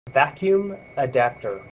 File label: "vacuum adapter", voice